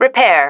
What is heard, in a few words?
"repair", female